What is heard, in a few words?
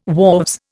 "wharves", Indian